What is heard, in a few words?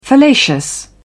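"fallacious", British English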